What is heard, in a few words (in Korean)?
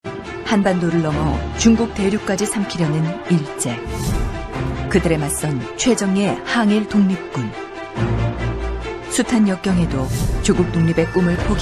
진중한